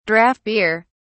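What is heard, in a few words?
"draught beer", British English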